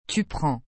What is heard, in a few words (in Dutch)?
"tu prends", frans; prendre